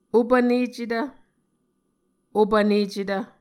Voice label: "opvnecetv", Muscogee